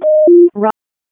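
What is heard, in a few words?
Raleigh Police Special Operations Tactical, p25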